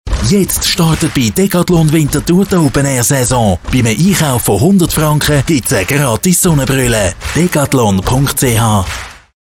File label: radio